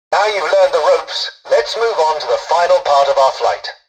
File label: tutorial dialogue, english; War Thunder